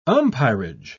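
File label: "umpirage", British English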